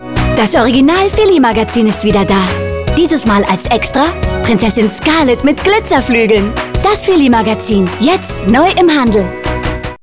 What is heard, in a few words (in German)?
Spots, frauen; Filly Magazin